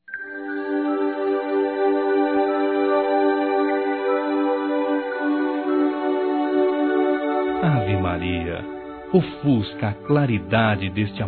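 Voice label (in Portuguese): pedido a Ave Maria, masculino; Desculpas; Telemensagens 2017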